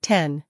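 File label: "ten", English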